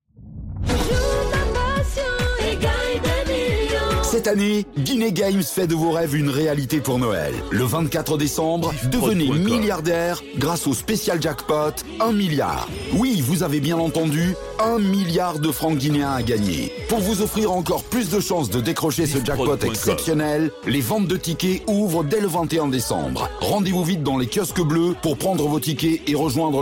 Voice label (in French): Spot, publicite; Guinee Games; Jackpot 1 Milliard Noel 2024